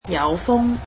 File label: "windy", cantonese; adjectives